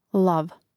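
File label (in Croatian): "lav" Croatian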